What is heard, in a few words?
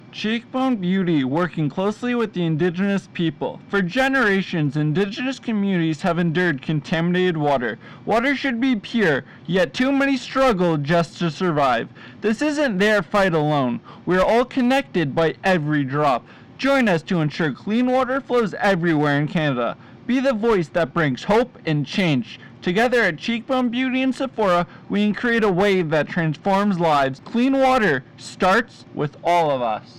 radio ad